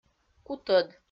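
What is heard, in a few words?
"кутӧд", Komi, female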